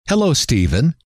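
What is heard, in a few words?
hello, male; trainer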